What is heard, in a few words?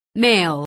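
"male", English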